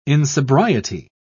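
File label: "insobriety", British English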